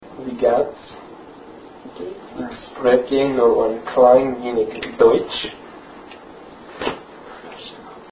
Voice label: holiday room hallway german and 2 possible responses